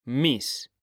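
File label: "mis", Spanish; mekanta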